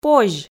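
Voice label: "позь", Komi, female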